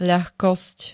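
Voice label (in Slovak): "ľahkosť", Slovak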